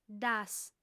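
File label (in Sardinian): "ddas", female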